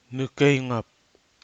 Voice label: "nɨkeghup", Kwamera; n. wild kava

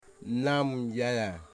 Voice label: "sow", Wamut

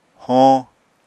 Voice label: "hoñ", Apatani

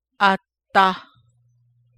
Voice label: downward from a height, navajo adverb

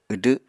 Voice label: "wdw", Apatani

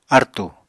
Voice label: "artù", Apatani

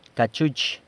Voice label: "cachu'ch", San Lucas Quiavini

